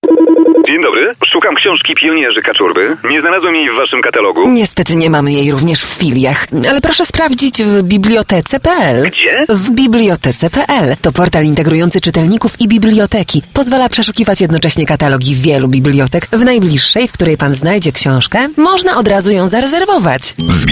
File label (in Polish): REKLAMA RADIOWA